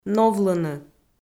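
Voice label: "новлыны", Komi-Zyrian, female; kpv audio dict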